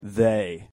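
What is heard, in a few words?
"they", English pronunciation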